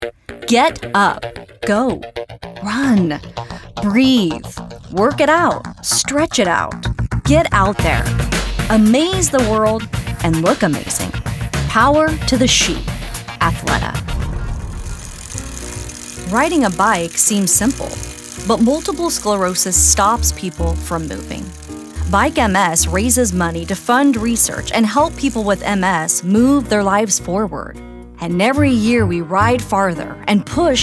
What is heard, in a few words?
Commercial